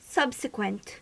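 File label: "subsequent", US English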